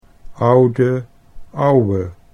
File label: "oude ouwe", Dutch